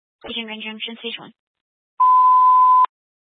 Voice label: Fire Department Dispatch, scanner